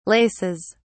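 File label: "laces", British English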